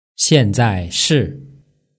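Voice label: "xianzaishi", Mandarin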